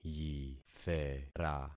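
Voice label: "yithera", concatenated syllables; Affixing experiment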